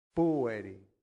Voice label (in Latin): "pueri", latin; santillana